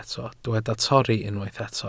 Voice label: "torri", Welsh